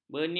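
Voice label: "benin", Malay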